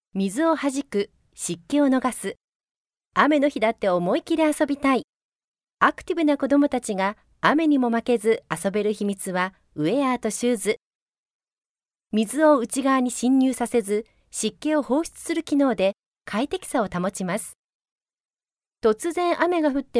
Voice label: female japanese voice over sales

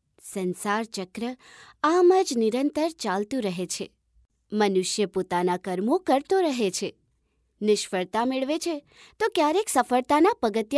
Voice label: female gujarati voice over narrative

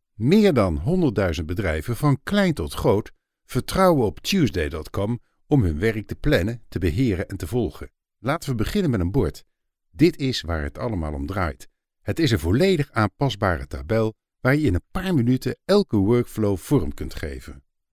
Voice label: male dutch voice over talent sales

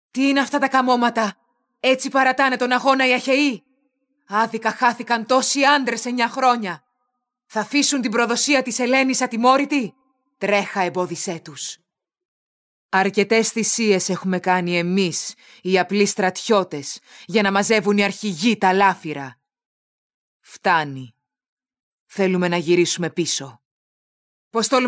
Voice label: female greek voice over character

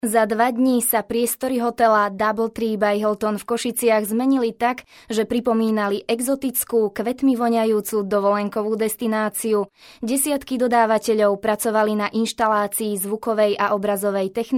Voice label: female slovakian voice over talent narrative

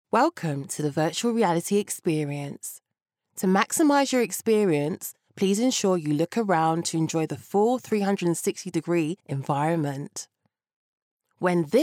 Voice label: female british voice over narrative; english british

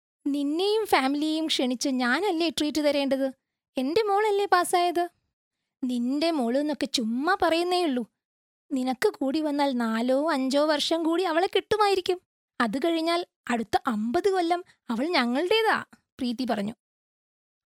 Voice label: female malayalam voice over character

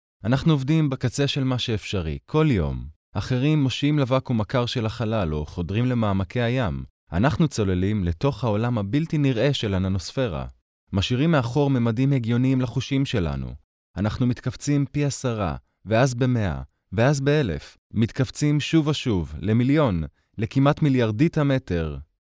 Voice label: male hebrew voice over talent narrative

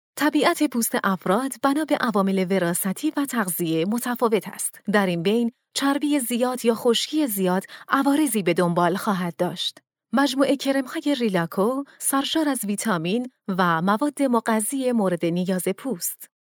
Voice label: female farsi voice over narrative

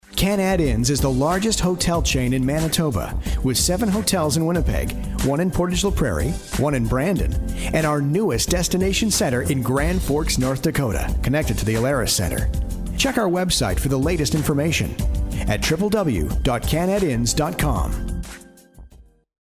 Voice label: male canadian voice over upbeat

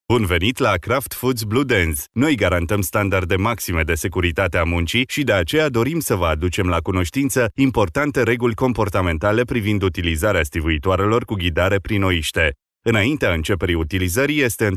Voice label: male romanian voice over narrative

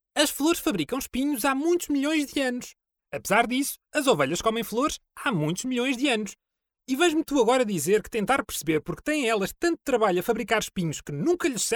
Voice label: male portuguese voice over talent character; portugal

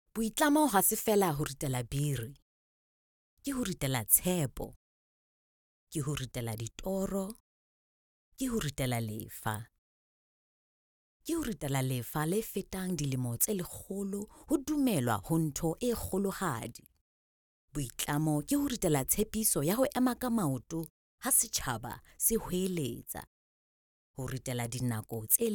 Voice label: female sesotho voice over narrative

